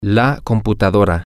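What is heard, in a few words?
Spanish, male; the Computer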